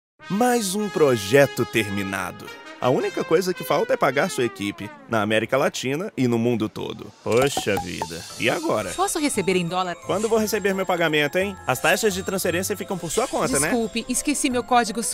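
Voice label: Brazilian Portuguese, male, commercial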